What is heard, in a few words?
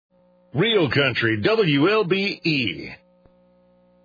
Real Country shout; 790 WLBE AM